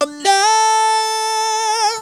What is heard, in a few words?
"OHLALALA", funky vocals; USB Soundscan vol.18; AKAI; TB MELODY